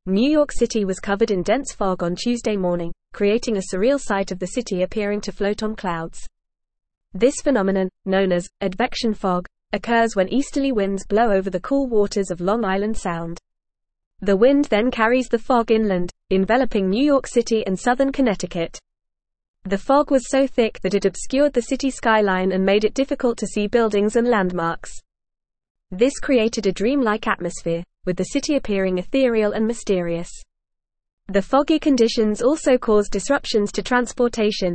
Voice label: English Advanced FAST Reading; Dense fog blankets New York City skyline